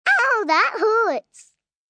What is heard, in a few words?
Ow That Hurts, Hurt, 1 girl, 5 yrs; Pain